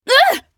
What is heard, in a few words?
hit, en